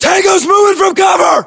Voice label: threat infantry exposed, US